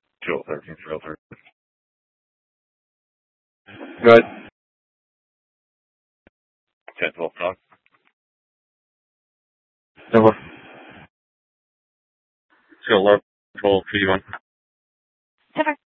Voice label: Dispatch; Scottsdale

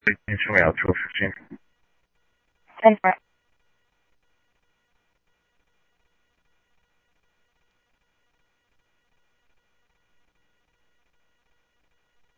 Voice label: Scottsdale Police, scanner audio

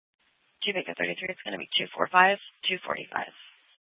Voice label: Mesa PD Central Dispatch, Scanner; TOPAZ Regional Wrlss Cooperative (TRWC) - Mesa Simulcast

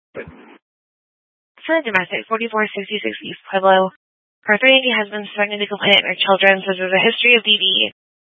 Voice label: Police Dispatch

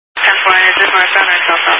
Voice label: Highway Patrol, scanner; DPS; Dist 06 Casa Grande

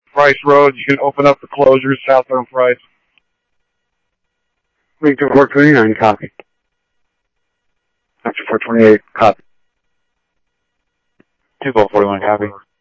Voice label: Tempe Police, scanner audio; TEMPE PD A04

